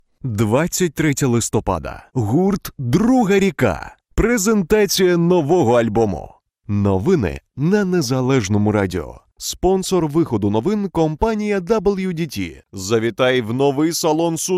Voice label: Male, Ukrainian